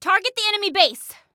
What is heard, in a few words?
Target Base, Female